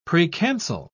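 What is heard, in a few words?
"precancel", British English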